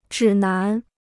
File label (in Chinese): "指南", Chinese